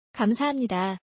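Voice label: "thank you" Korean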